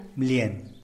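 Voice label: "blien" hare, Zapotec; Tlacochahuaya Zapotec Talking Dictionary; animals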